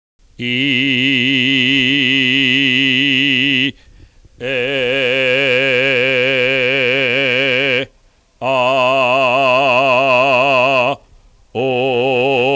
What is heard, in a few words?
vowels normal pitch, voice examples; vowel study